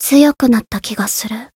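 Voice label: voice growth Japanese; ruina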